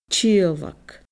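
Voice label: "tiodhlac", Scottish Gaelic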